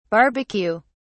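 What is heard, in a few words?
"barbeque", British English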